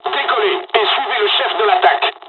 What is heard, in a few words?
takeoff, dialogs french; first wave; War Thunder